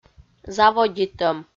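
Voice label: "заводитӧм", Komi-Zyrian, female; audio dict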